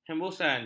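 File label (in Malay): "hembusan", Malay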